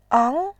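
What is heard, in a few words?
"ang", Mandarin Chinese, tone 2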